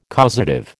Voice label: "causative", American

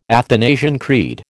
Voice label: "Athanasian Creed", American

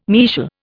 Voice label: "mesial", Indian

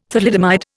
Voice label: "thalidomide", Indian

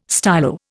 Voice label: "stylo", Indian

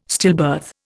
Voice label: "stillbirth", Indian

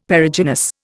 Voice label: "Perigynous", Indian; Dictionary

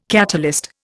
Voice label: "catalyst", Indian

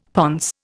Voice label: "ponce", Indian; Dictionary

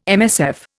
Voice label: "MSF", Indian

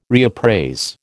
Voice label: "reappraise", American

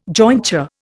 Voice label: "jointure", Indian

